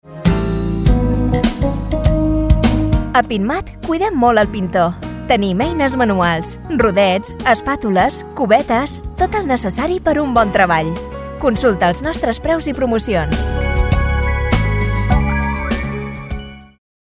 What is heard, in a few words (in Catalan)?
HERRAMIENTAS MANUALES CATALAN; pinturas andalucia